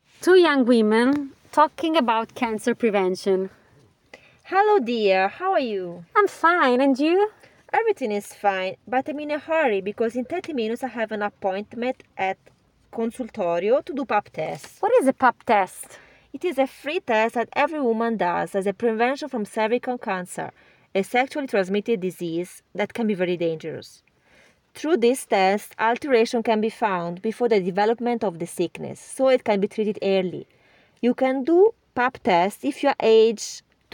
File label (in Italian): Inglese